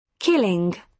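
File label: "killing", British English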